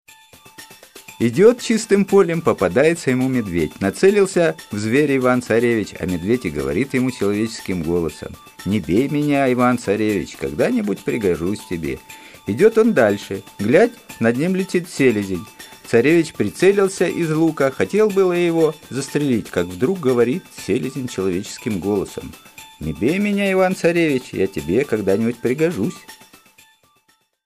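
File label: russian male narration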